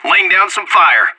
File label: in combat, player vo anarchist; Counter-Strike Global Offensive